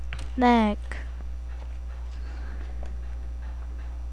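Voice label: "neck", spoken word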